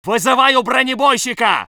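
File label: voice soviet infantry; Red Orchestra 41-45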